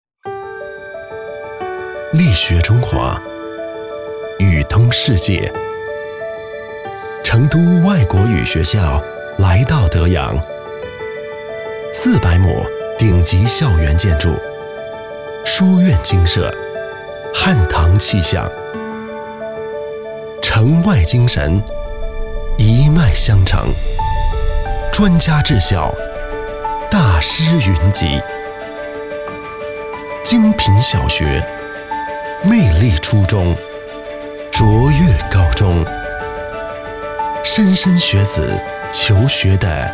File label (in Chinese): 英男 中文 年轻音色